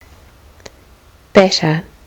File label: "batter", kiwi english